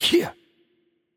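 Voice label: Korean attack light voice; bloom minigame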